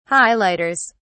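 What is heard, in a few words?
"highlighters", British English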